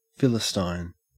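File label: "philistine", Australian English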